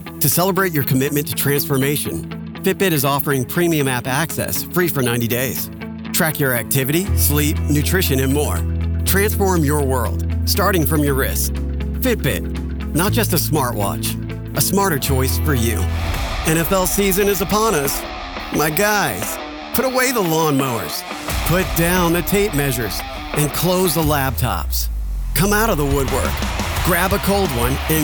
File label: Commercial